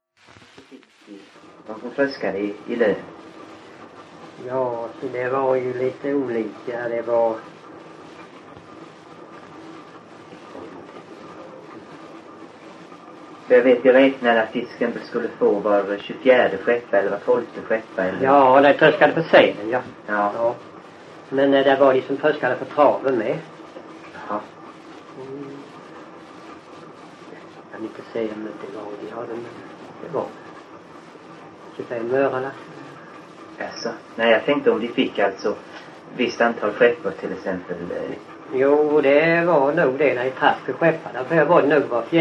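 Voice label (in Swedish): Öljehult Blekinge, dialekter; Folkminnen; Svenska sagor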